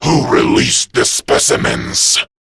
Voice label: Grineer Male en; InfestedIntroQuest; Intel Inf Released; Warframe

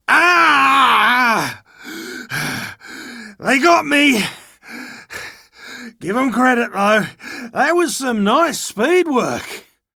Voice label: Downed, en, Dialog Barks Battle

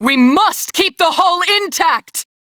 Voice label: Crew Ship Low, Female; Dialog; en; Warframe; Railjack Crew; New Loka

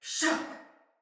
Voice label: Attack Soldier Male; Battle; Barks; Dialog; Sinecure Soldier Male A

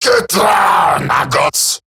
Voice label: Cover Me Eximus Grineer Male; Battle Barks

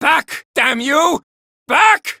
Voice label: Villagers Male, Cetus Invasion

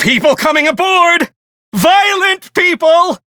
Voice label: Enemy Spot, Male; Dialog; Warframe; Railjack Crew; Perrin Sequence

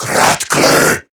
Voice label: Surprised, Male

